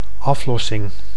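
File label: "aflossing", Dutch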